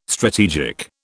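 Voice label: "strategic", British English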